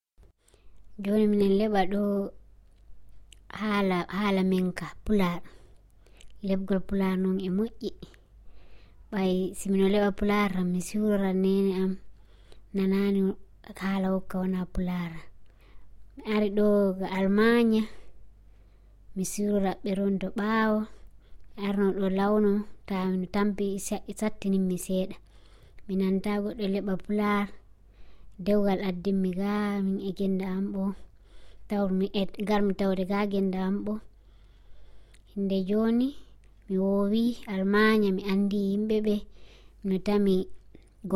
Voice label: Fula